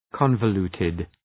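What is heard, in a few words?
"convoluted", English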